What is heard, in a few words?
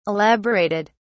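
"elaborated", British English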